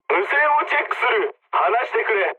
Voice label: jp chat; War Thunder